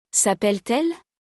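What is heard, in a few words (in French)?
"s appelle t elle", French; conjugaison; le verbe s'appeler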